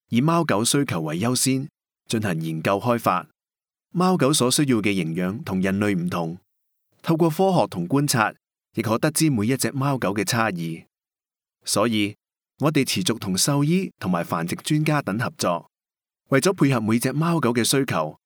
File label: Narration